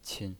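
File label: "qin" neutral tone, pinyin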